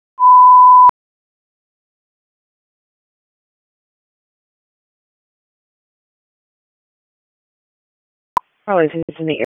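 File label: Police Dispatch; Raleigh; Southwest District; p25; wake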